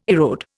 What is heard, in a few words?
"erode", Indian